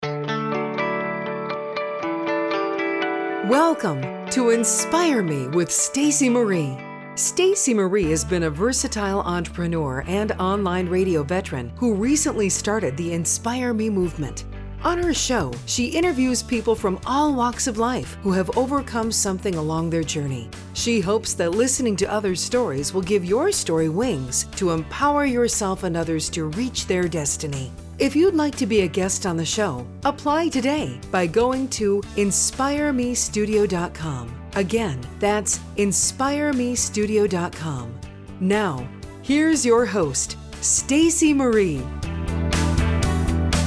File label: Podcast Intros